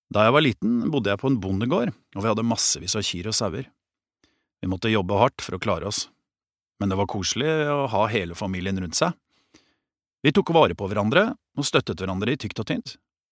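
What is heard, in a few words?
no dub man, Norwegian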